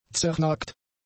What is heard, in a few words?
"zernagt", German; adjectives